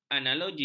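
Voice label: "analogi", Malay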